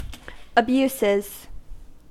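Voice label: "abuses", US English; pronunciation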